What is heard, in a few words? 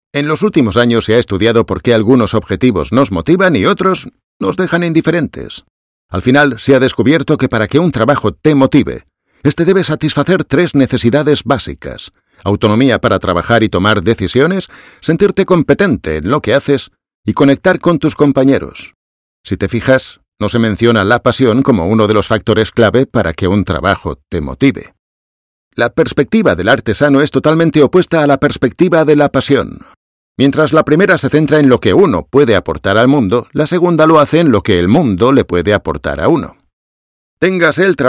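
Spanish Europe, e-learning; GoLocalise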